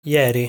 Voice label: "Ieri", Italian pronunciation

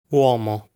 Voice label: "Uomo", Italian; lesson 6 articles plural

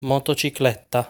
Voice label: "Motocicletta", Italian; lesson 6 articles plural

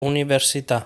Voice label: "Università", Italian; lesson 6 articles plural

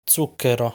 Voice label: "Zucchero", Italian; lesson 6 articles plural

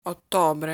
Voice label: "Ottobre", Italian

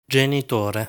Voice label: "Genitore", Italian

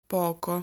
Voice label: "Poco", Italian

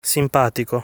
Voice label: "Simpatico", Italian; lesson 6 articles plural

